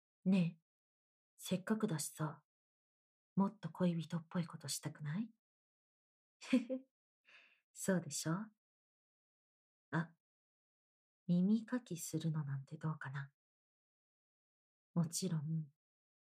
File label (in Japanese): 耳かきなんてどうかな?, ASMR